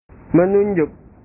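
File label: "menunjuk", Indonesian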